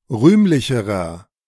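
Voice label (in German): "rühmlicherer", German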